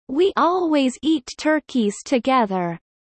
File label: low; four seasons